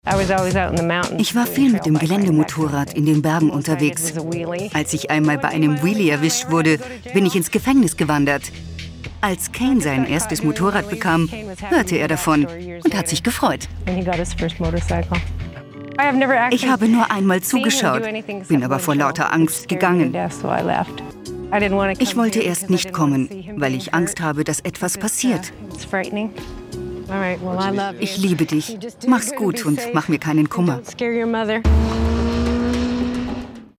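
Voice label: voice over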